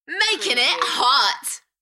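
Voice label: British female Makin it hot Enthusiastic; beanstalk audio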